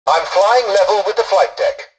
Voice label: tutorial dialogue, english; War Thunder